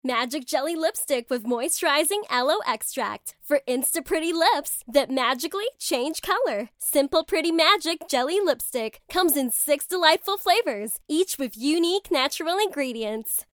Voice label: English, Perky

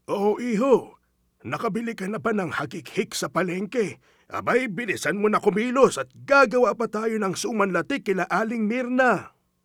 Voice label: Tagalog, Senior, Serious

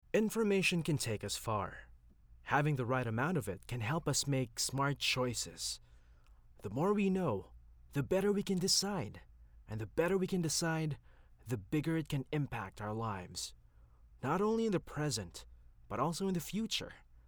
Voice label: English (Corporate)

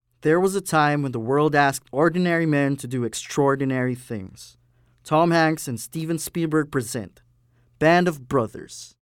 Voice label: English, Authoritative

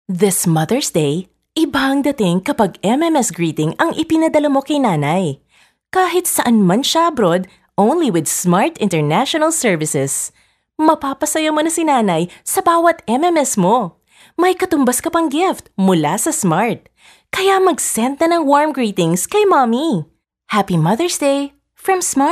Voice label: Taglish, Motherly, Warm

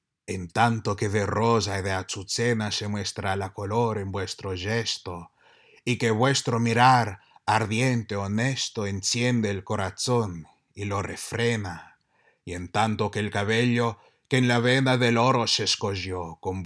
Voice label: Sonnet Read in Garcilaso's Spanish

